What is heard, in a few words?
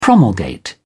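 "promulgate", British English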